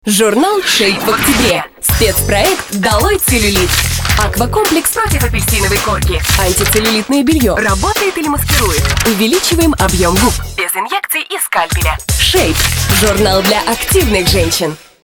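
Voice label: Russian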